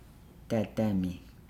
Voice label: "carve thing", Nukuoro

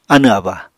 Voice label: "anẁ-aba", Apatani

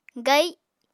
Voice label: "gai", Apatani

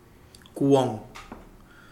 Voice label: village, Kapingamarangi

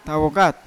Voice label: "tawokat ko" fruit, Yawa

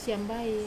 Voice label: "siambai" good morning, Wamesa

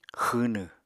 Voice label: "xwwnw", Apatani

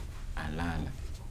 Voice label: "cassava leaves", Kibembe

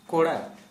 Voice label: Santali, male, male

